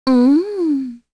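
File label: Vox Deny, Korean; Shamilla; kingsraid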